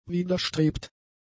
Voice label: "widerstrebt", German; adjectives

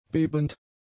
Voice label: "bebend", German; adjectives

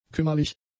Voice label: "kümmerlich", German; adjectives

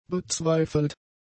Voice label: "bezweifelt", German; adjectives

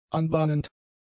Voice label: "anbahnend", German; adjectives

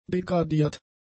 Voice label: "degradiert", german; adjectives

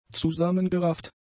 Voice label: "zusammengerafft", German; adjectives

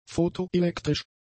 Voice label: "photoelektrisch", German; adjectives

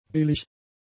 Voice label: "billig", German; adjectives

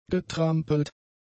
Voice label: "getrampelt", german; adjectives

